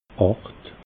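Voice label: "acht", Alsatian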